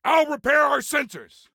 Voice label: Self Repair Sensors, Soldier Male; Soldier Male 03

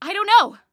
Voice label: Team Don't Know, Female; Female 02

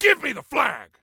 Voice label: Flag Give Me, Soldier Male

